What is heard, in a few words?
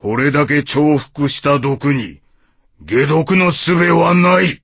voice, dubbing